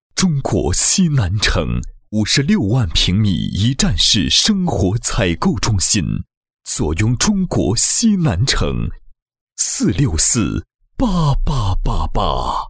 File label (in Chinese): 男4, 国语男声配音, 男04大气专题; 中国西南城